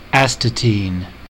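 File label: "astatine" English, US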